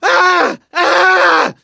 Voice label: incapacitated injury, voice; gambler

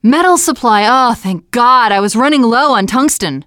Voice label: metal supply, teengirl

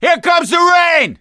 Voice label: misc directional, voice; gambler

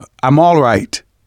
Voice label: getting revived, manager, survivor, voice; Left 4 Dead 2; left4dead2 dlc2

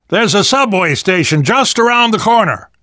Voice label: world hospital, namvet, survivor voice

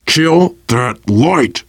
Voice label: kill that light, voice, biker; Left 4 Dead 2; left4dead2 dlc3; survivor

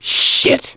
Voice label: reaction negative, voice; gambler

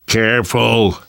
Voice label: warn careful, namvet, survivor voice; Left 4 Dead 2; left4dead2 dlc3

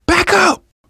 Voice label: backup quiet, manager, survivor, voice; Left 4 Dead 2; left4dead2 dlc2

